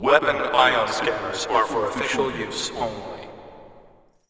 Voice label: announce; allegiance